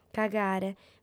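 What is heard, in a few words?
"cagare", lingua sarda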